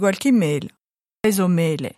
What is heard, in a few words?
"mela", Moita Verde (nord)